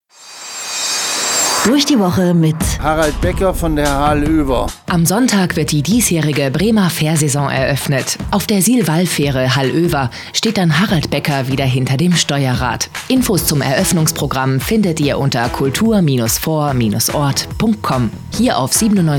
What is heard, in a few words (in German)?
Fährmann platt Bremen